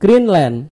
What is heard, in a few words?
"greenland", id; gcompris-data; geography